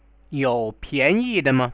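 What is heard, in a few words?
"youpianyidema", Chinese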